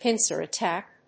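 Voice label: "pincer attack", English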